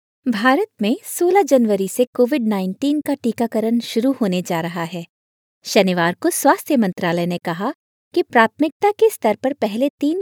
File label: Hindi news